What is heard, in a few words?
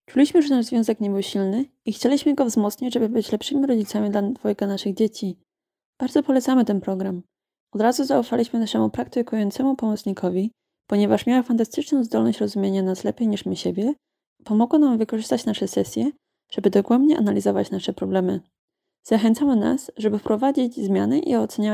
Polish Quote